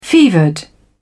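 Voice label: "fevered", English, British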